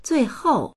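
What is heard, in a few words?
"zui4hou4", Mandarin Chinese; 最后; 最後、おしまい; dictionary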